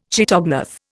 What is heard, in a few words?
"chaetognath", Indian